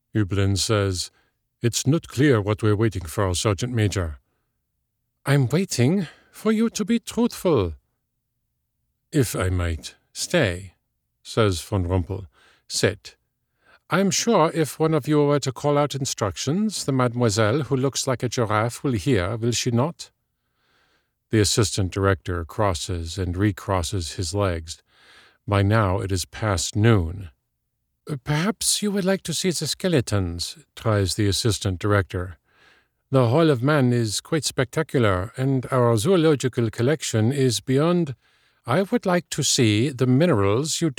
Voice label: Historical Fic Accents German French Dialogue, audiobook